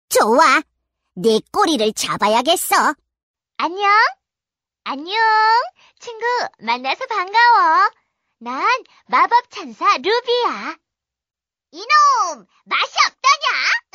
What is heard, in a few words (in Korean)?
Korean Character Young Boy; Female